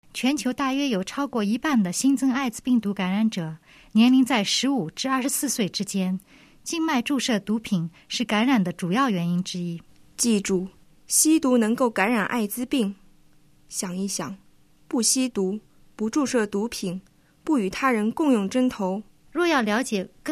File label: spot chinese